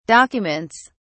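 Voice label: "documents", British English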